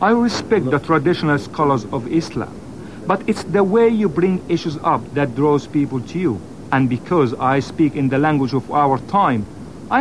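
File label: Arabic English; Arabic (Iraq), Middle Eastern, Male, 30s-50s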